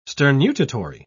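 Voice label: "sternutatory", British English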